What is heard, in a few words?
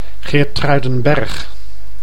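"Geertruidenberg", Dutch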